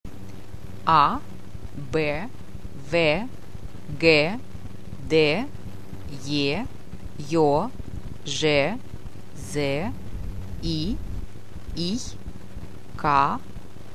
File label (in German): russisches alphabet